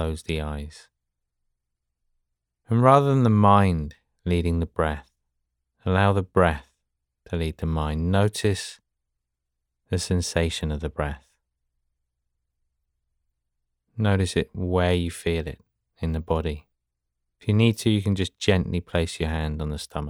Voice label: mini breathe